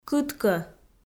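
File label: "кыткӧ", Komi, female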